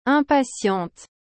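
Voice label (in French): "impatiente", French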